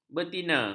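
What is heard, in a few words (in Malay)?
"betina", Malay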